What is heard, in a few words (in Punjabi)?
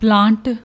"plaant", Punjabi